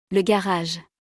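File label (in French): "Le garage", French; vocabulaire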